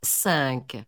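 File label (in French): "cinq", French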